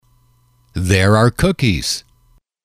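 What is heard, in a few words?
"there are cookies", English, male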